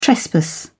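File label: "trespass", British English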